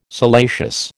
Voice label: "salacious", American

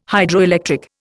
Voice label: "Hydroelectric", Indian

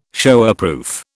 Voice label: "Showerproof", British

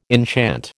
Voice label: "enchant", American

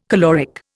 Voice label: "caloric", Indian

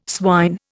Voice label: "swine", Indian

